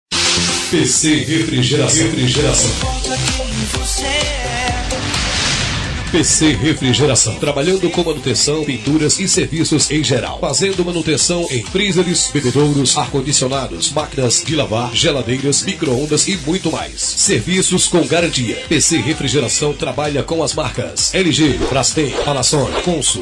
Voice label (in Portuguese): spot pronto refrigeracao